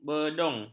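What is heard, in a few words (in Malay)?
"bedung", Malay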